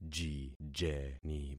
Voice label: "jijeni", concat syllables; Affixing experiment

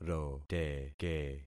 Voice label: "roteke", concat syllables; Affixing experiment

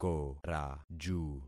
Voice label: "koraju", concat syllables; Affixing experiment

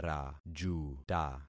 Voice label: "rajuta", concat syllables; Affixing experiment

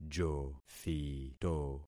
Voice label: "jothito", concat syllables; Affixing experiment

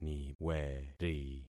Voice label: "niweri", concat syllables; Affixing experiment

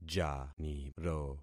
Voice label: "janiro", concat syllables; Affixing experiment

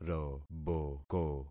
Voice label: "roboko", concat syllables; Affixing experiment

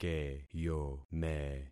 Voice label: "keyome", concat syllables; Affixing experiment

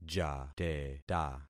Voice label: "jateta", concat syllables; Affixing experiment

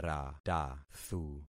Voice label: "ratathu", concat syllables; Affixing experiment

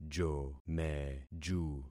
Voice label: "jomeju", concat syllables; Affixing experiment

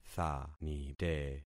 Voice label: "thanite", concat syllables; Affixing experiment

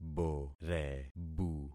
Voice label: "borebu", concat syllables; Affixing experiment

